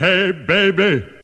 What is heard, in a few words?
hey baby male